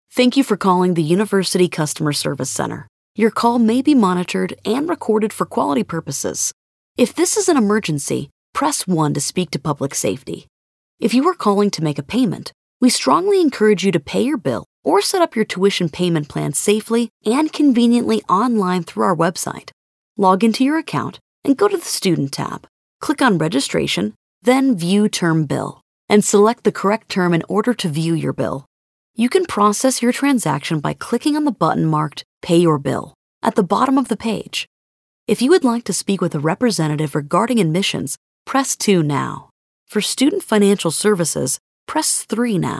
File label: us english ivr